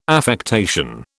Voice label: "affectation", British